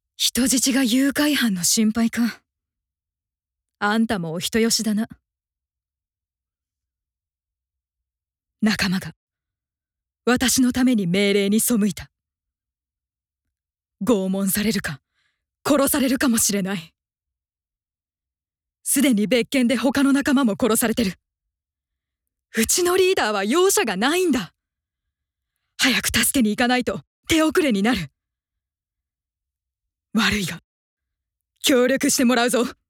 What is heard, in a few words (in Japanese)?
40代女性